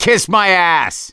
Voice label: reaction negative, voice; gambler

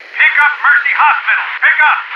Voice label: hospital finale pickup loud, chopper pilot; Left 4 Dead 2; npc

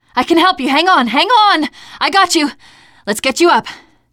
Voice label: ledge save, teengirl; player; survivor; voice

